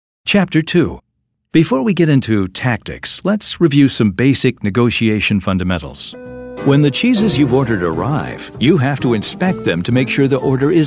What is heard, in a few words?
eLearning